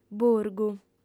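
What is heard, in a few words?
"burgu", female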